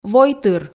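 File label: "войтыр", Komi, female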